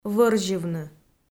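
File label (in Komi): "вӧрзьывны", Komi-Zyrian, female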